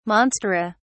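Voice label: "monstera", British English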